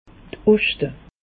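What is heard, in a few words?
"oschte", Alsatian; meteo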